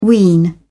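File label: "wean", British English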